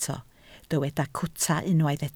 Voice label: "cwta", Welsh